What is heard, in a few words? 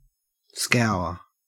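"scour", Australian English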